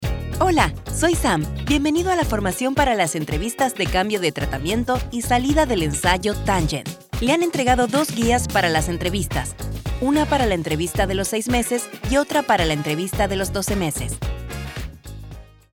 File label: spanish latin american e learning; Buenos Aires, Argentina